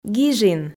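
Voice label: "гижин", Komi, female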